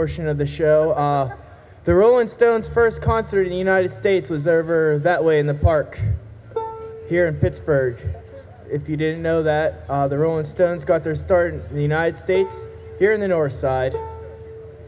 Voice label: Banter, Bluegrass String Band; zoomh1